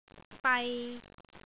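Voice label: "bai3", Cantonese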